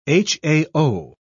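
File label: "hao", British English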